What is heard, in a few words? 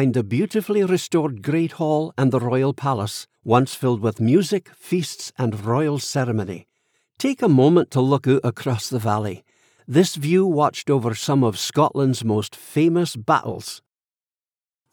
Scottish, accents languages